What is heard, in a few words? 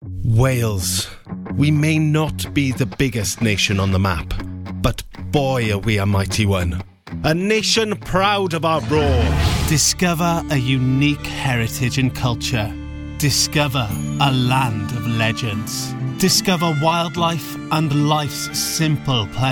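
English UK, general; GoLocalise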